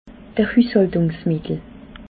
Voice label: "hushaltungsmittel", alsace